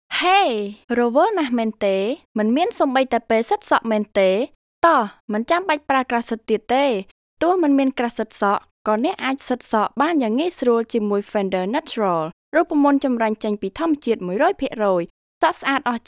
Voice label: Khmer Voice